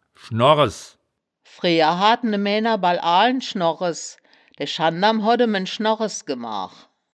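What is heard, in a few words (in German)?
"schnorres", wittlicher woerterbuch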